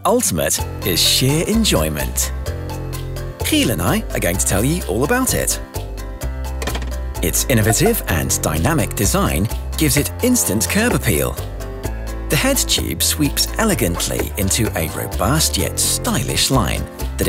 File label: electric bike corporate video young UK male voice over artist; Gazelle Ultimate